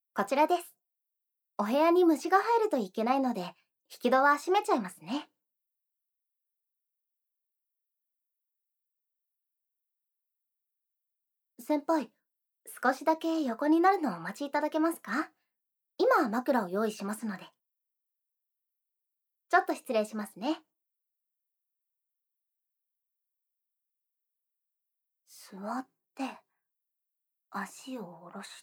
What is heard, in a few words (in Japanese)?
縁側でひなたぼっこ（SE BGM無し）